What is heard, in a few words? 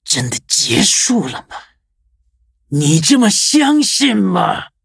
defeat, zh-hant; cookierunwiki